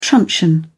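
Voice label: "truncheon", British English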